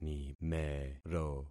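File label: "nimero", concatenated syllables; Affixing experiment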